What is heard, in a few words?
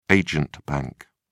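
"agent bank", British English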